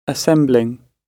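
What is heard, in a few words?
"assembling" British English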